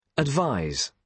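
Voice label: "advise", British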